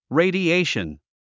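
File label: "radiation", English word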